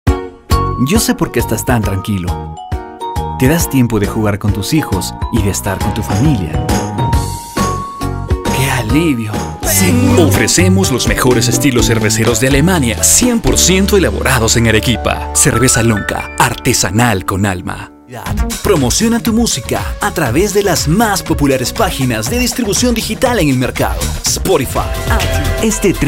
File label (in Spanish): locución